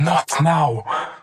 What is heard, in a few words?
not now annoyed